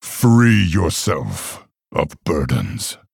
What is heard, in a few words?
Male Orc Greetings